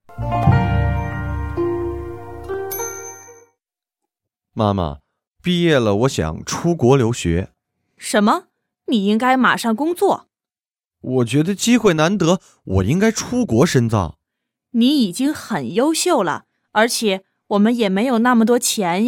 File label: dialog, chinese; chineseclass101